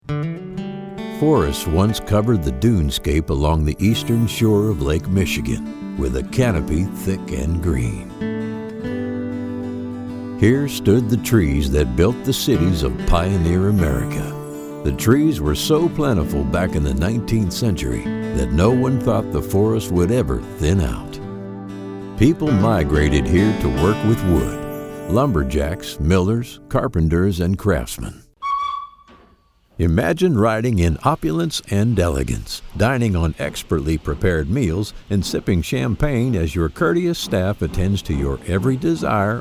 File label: Documentary